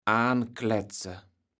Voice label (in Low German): "aankletze", kaevels platt